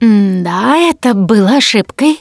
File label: going to die, russian; Producer